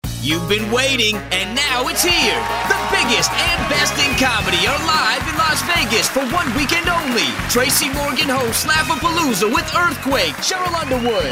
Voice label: high energy